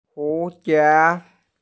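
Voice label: "hu2die2", Mandarin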